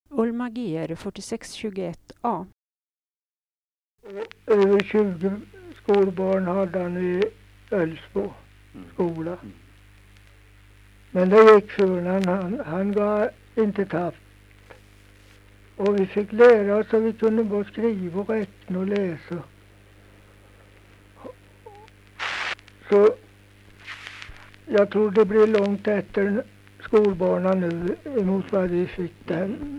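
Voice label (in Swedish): Nysund Närke, dialekter; Folkminnen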